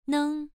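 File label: "neng", tone 1, pronunciation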